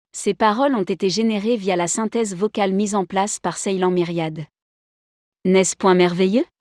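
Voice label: speech test, French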